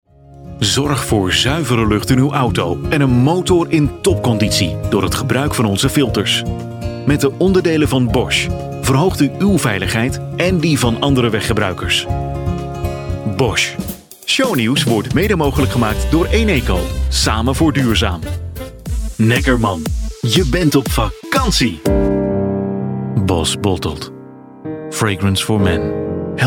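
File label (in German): Dutch, Fremdtake Mix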